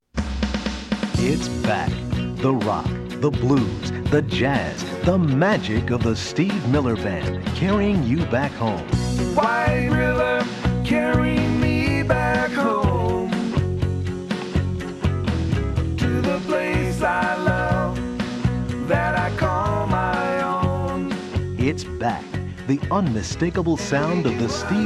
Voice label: Radio Commercials LP; 1993